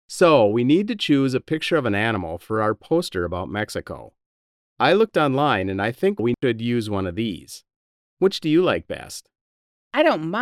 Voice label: conversation; Unit 4; Real Heroes 4